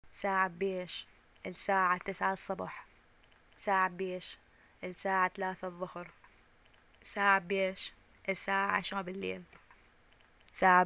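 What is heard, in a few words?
what time is it, Arabic, Iraq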